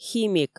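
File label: "химик" Komi, female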